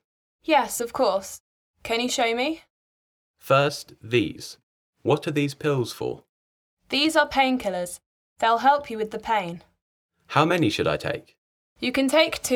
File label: Conversation; Bedside Manner Beginner